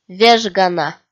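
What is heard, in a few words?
"вежгана" Komi, female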